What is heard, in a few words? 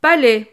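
"palais", French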